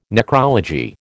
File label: "necrology", American